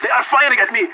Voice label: radio taking fire; Counter Strike Model Pack; CS:GO; leet

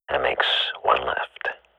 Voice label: radio bot kill count, swat; agents

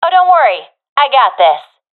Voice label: last unit alive, professional fem; vo; Counter Strike Model Pack; CS:GO

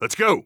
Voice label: radio lock n load

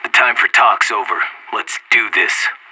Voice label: radio lock n load; anarchist

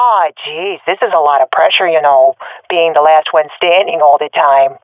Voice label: last unit alive, swat fem; vo; agents; Source Model Packs; Counter Strike Model Pack; CS2

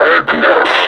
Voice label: callout entity aps, vo combine suppressor; Half Life Alyx

